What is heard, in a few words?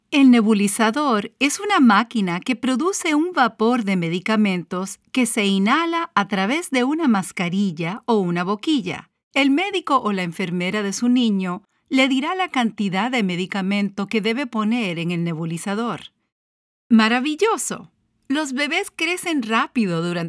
eLearning Spanish